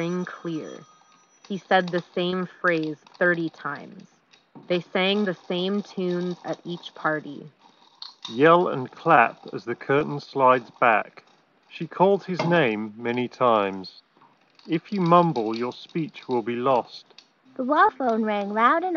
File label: Office microphone sample; Bowers Wilkins PI7